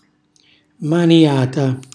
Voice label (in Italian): "manjata", Calabrese; palmo, palpeggio; cucina calabrese; Glossario